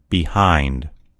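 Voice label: "behind", American English; gcompris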